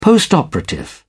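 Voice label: "postoperative", British English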